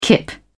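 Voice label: "kip", British English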